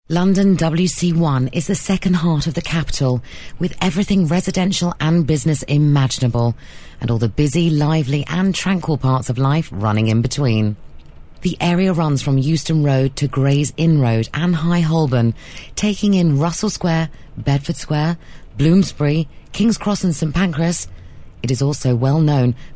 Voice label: narration; home house estates